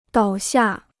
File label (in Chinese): "倒下", Chinese